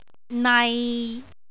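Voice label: "nai6", Cantonese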